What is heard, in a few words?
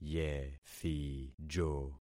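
"yethijo", concatenated syllables; Affixing experiment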